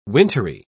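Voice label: "wintery", British English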